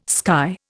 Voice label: "sky", Indian